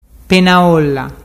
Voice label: penaolla, dialettu

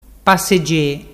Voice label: pasege, dialettu

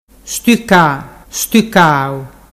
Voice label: "stucastucau", dialettu

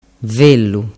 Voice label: "vellu", dialettu

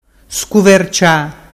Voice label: "scuvercia", dialettu

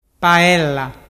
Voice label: paella, dialettu

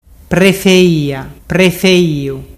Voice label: prefeiafeiu, dialettu

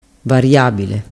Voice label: "variabile", dialettu

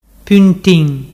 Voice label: puntin, dialettu

